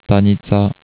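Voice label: "stanica", Slovak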